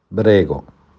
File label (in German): "Bitte" - "Prego"